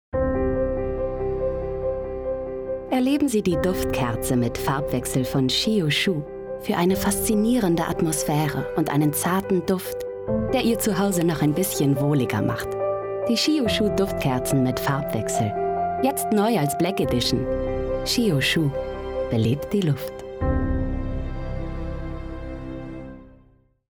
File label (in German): Werbung Kerzen gut Musik